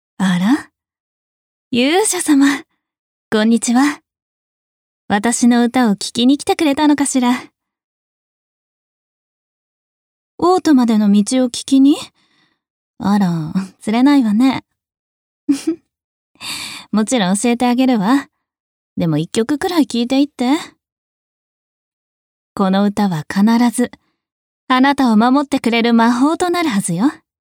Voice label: female; f iroppoi